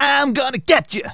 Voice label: "I'LL GET YOU", Speech, Soul Man

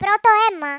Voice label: "first blood", Greek; Worms Armageddon

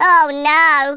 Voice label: "uh oh", Brummie; Worms Armageddon

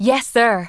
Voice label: "Yes sir", Smooth Babe; Worms Armageddon

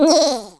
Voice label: nooo, Speech, Russian

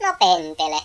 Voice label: "oi nutter", Finnish; Worms Armageddon

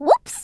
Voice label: "oops", Brooklyn; Worms Armageddon

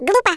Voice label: stupid, Speech, Russian

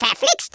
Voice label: BUMMER, Speech, German; Worms Armageddon

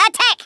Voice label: "FIREBALL", Australian; Worms Armageddon

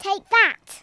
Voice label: dragonpunch, Speech, Kidz; Worms Armageddon

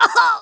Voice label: "OUCH", Kamikaze; Worms Armageddon

